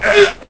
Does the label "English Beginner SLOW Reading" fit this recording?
No